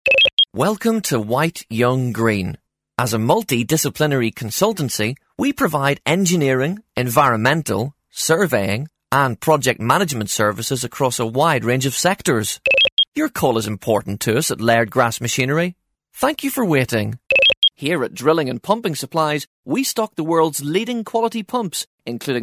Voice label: on hold